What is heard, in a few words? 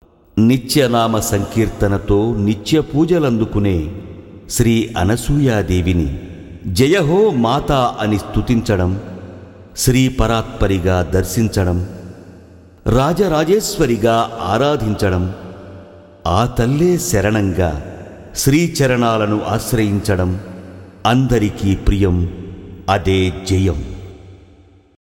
Commentary